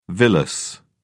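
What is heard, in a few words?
"villus", English, British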